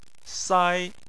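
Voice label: "sai" tone 1, Chinese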